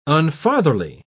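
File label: "unfatherly", English, uk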